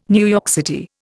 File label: "NYC", Indian